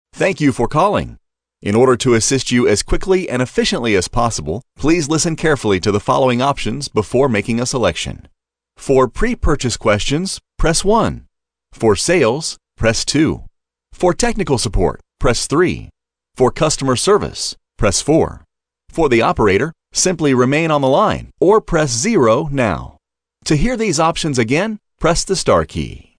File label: male ivr, male voice